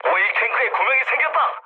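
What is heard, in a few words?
Korean damaged oil mood med, speech; War Thunder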